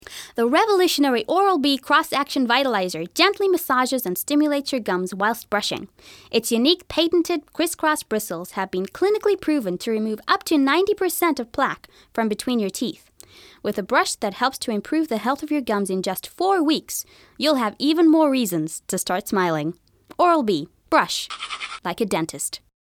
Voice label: american